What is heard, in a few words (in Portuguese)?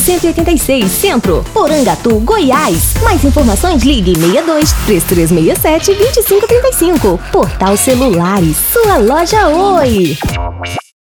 Spot PROMOCAO BLACK FRIDAY